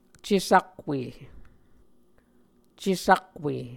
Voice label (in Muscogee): "cesakwe", Muscogee